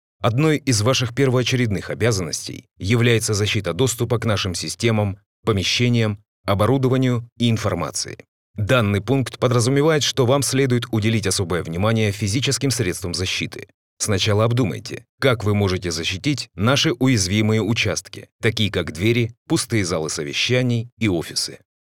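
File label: Russian elearning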